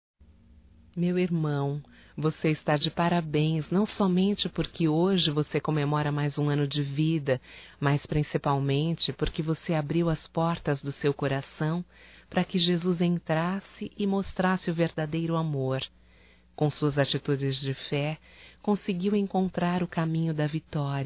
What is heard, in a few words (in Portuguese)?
Aniversário Evangélico Feminino, Telemensagens; Telemensagens 2017; Aniversarios